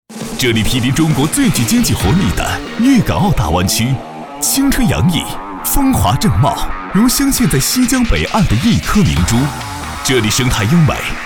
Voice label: 男 宣传片 逐梦青春 动感活力

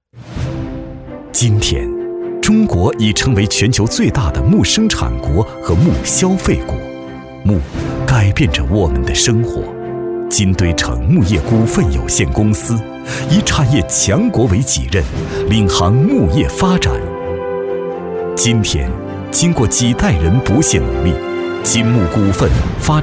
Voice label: 男 企业专题

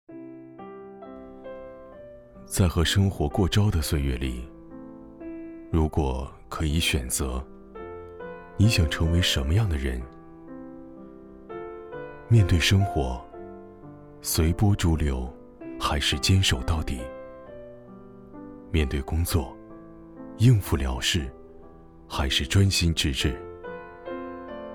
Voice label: 男 旁白 生活

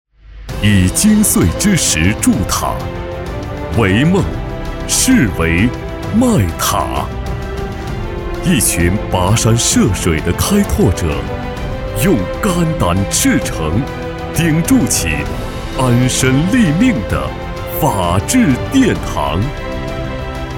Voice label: 男 宣传片 企业宣传; 以金穗之实筑塔

